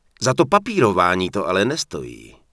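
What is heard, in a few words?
Human Male error cant equip skill, Czech; wowpreklad; czwow; MaleErrorMessages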